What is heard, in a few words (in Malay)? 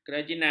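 "kerajinan", Malay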